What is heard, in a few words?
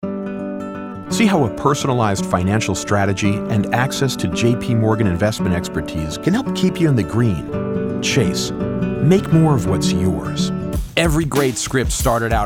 Commercial, Professional Male Voiceover; friendly, authoritative, intelligent, steady